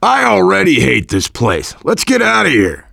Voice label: goal, voice, biker; Left 4 Dead 2; left4dead2 dlc3; survivor